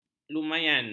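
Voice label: "lumayan", Malay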